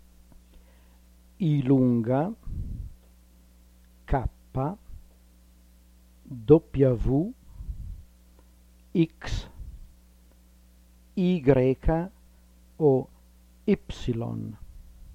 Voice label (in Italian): alfabeto altre lettere, pronuncia